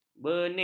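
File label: "bening", Malay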